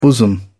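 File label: "bosom", British English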